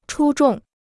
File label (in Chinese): "出众", Chinese